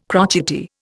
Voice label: "crotchety", Indian